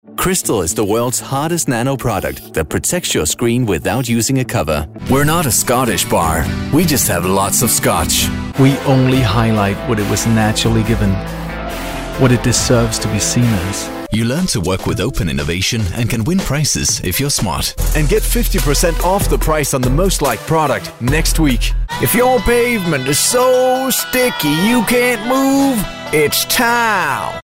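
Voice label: Danish, male, English commercial medley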